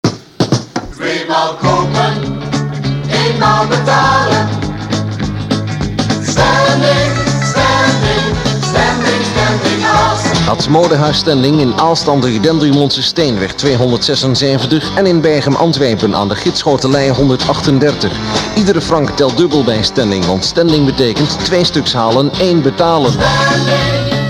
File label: commercial